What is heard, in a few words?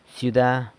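"syuda", city, San Lucas Quiaviní Zapotec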